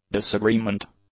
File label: "disagreement", English; nouns